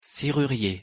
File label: "serrurier" pronunciation fr